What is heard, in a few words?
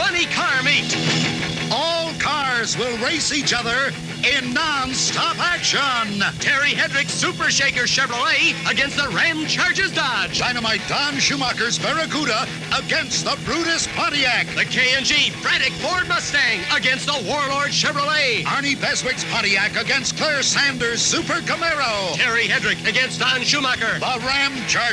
Detroit Dragway Commercial